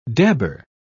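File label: "dabber", British English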